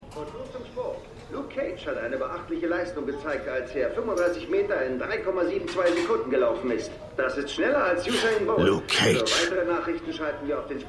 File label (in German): TV Sprecher; Luke Cage